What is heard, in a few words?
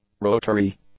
"rotary", English; adjectives